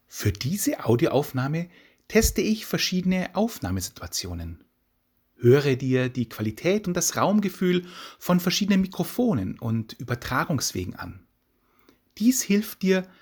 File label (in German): podcast diktiergeraet grosser raum, large room - carpet, furniture, textile